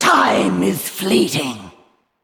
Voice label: Female Val'kyr Time